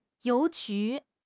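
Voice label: "you2 ju2", Mandarin Chinese; HSK; HSK4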